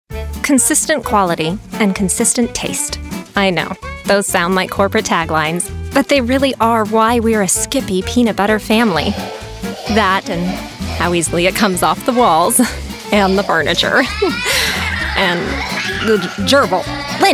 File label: commercial